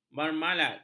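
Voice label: "marmalad", Malay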